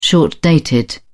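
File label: "short-dated", British English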